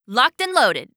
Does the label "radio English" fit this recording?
No